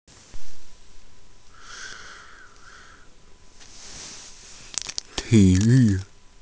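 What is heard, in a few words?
"ti3 yu4", Mandarin Chinese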